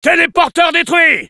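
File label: auto destroyed teleporter, French; Engineer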